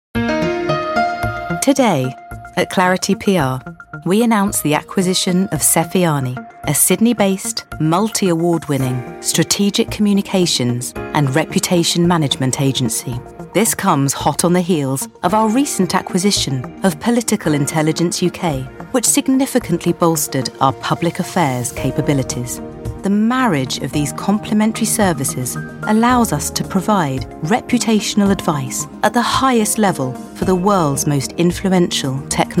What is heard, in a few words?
corporate